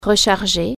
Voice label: recharge, french